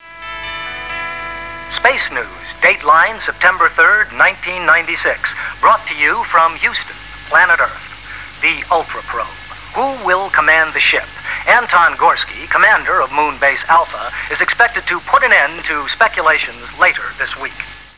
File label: Space news